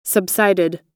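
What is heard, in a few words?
"subsided", American English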